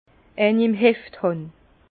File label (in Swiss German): "hefthan", Bas Rhin; vin